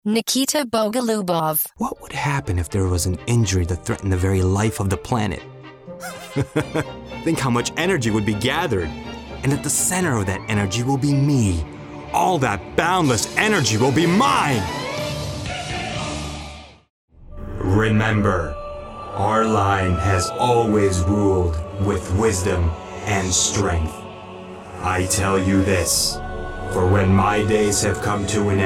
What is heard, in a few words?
Video Game Voice Over